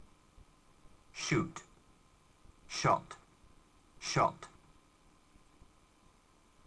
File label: "shoot", verbs